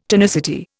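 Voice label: "tonight", Indian; Dictionary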